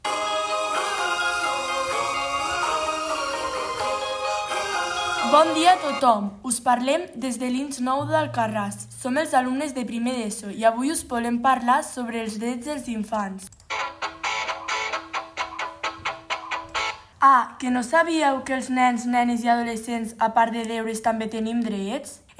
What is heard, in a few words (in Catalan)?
Falca radio; enregistrem els drets dels infants